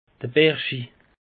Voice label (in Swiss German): "berschi", alsace; peche